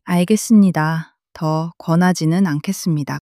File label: sadness, monologue